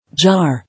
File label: "Jar", English